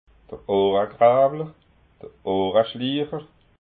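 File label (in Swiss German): "ohragrawler", Haut Rhin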